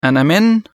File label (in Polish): egipski; Egipski Arabski dialekt